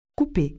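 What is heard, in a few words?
"couper", French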